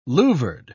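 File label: "louvered", British English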